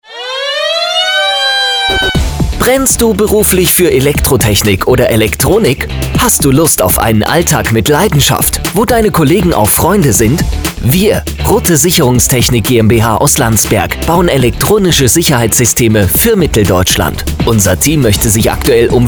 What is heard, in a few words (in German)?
Funkspot Recruiting; RUTTE Sicherungstechnik